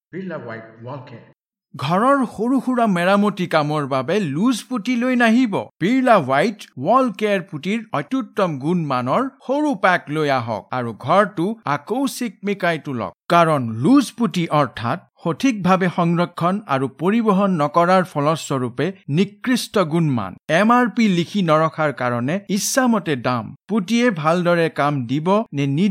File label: Assamese, TVC; Birla White; Dollar